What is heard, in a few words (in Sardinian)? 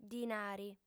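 "dinari", female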